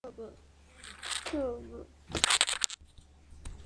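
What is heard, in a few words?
"cube", spoken word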